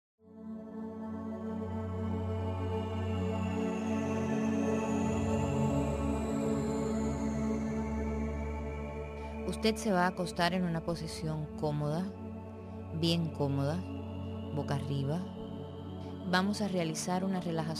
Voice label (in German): spanisch probe